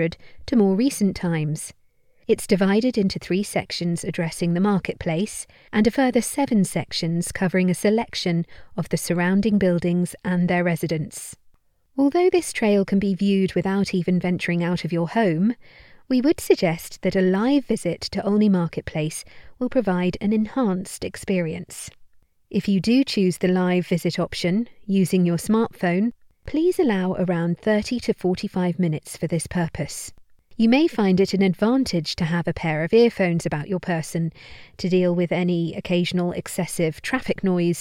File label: intro voiceover